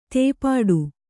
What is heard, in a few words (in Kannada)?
"ತೇಪಾಡು", kannada